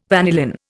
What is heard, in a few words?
"vanillin", Indian